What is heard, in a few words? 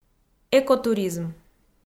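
"экотуризм", Komi, female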